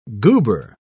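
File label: "goober", British English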